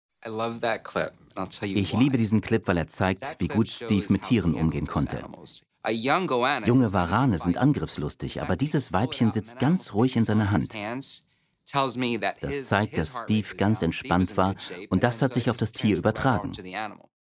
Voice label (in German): doku studio; hamburg